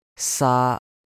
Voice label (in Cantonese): "saa1", Cantonese